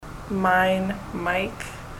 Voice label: mine, ontario